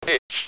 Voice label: "pitch", Speak & Spell UK Speech